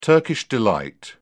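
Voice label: "turkish delight", British English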